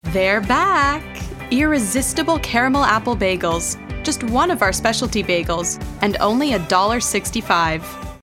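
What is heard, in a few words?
American English, Commercial; GoLocalise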